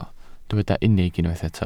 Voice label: "unig", welsh